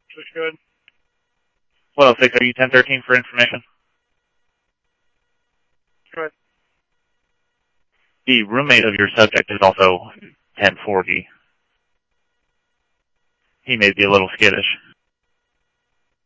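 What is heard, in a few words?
police radio dispatch, ScottsdalePolice; SCTSDLE PD - PD1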